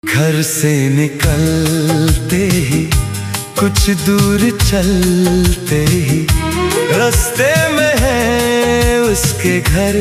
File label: AI Male Ringtone